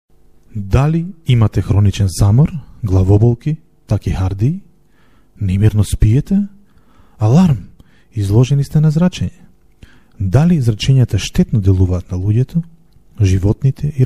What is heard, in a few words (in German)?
Mazedonien M